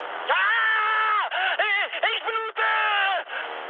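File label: German damaged pilot mood high, speech; War Thunder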